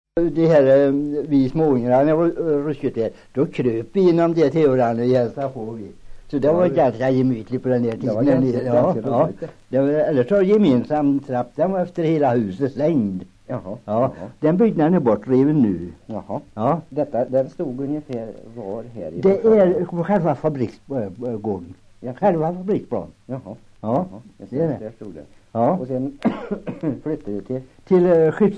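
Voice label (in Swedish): Grava Värmland, dialekter, Svenska sagor; Folkminnen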